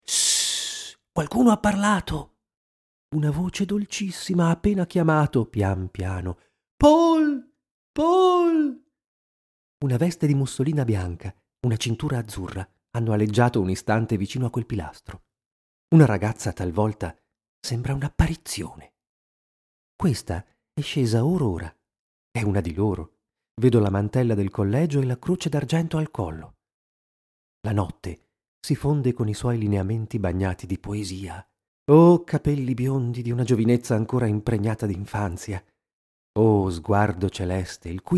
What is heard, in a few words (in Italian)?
audiolibro; Voice over; speaker